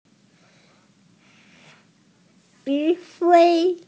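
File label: "zhi3hui1", Chinese, F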